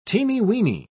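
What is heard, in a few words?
"teeny-weeny", British English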